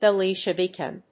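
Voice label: "Belisha beacon", English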